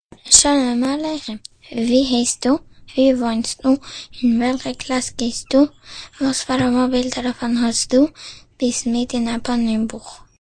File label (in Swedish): jiddisch; sprakradet